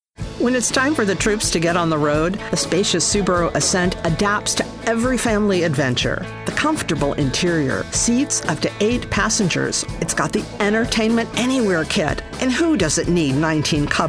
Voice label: Commercial; Subaru